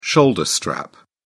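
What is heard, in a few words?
"shoulder strap", British English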